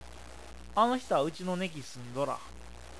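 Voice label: "neki", Awaji dialect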